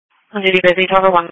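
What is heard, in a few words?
Police Patrol Dispatch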